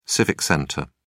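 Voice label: "civic center", British English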